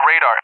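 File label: "radar", English, USA; DCS; Allied Flight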